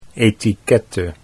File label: "etiquette", Dutch